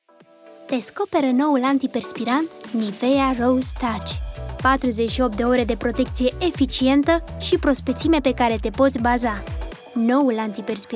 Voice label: commercial Romanian; Nivea